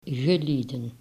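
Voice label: "geleden", Dutch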